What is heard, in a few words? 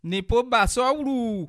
do you speak, bassa